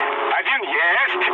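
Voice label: player killed enemy, mood low, Russian; War Thunder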